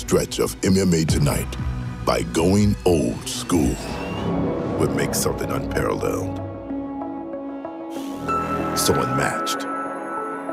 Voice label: Promo